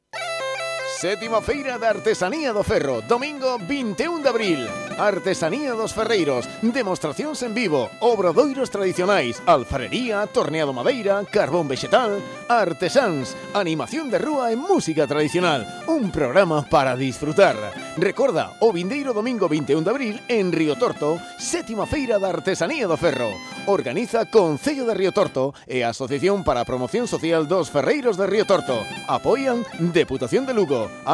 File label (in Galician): anuncio radio; feira da artesania do ferro; riotorto